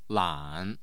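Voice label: "lan", Mandarin Chinese, tone 3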